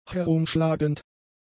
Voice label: "herumschlagend", German; adjectives